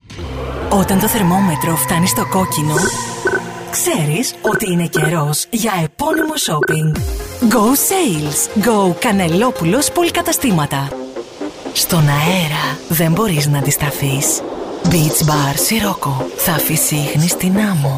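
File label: Greek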